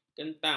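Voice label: "kentang", Malay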